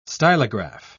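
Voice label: "stylograph", English, uk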